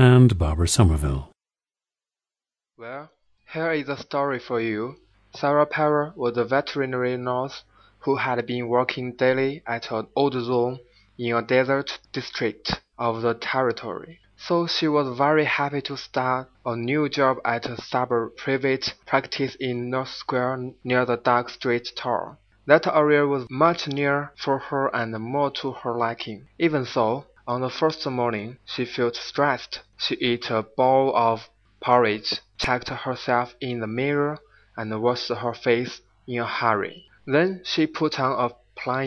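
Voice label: henan, International Dialects of English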